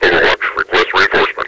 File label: overwatch request reinforcement, combine soldier; svencoop; hl2 npc